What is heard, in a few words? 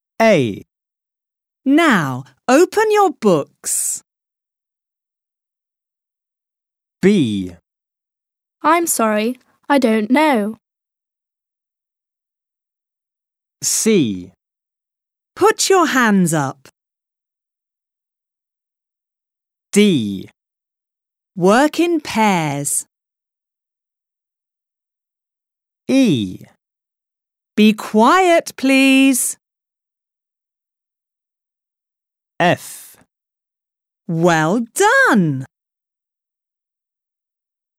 classroom english; Introduction sequence